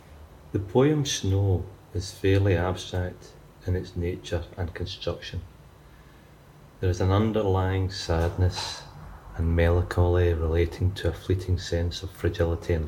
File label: audio voiceover